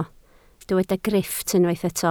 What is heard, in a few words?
"grifft", Welsh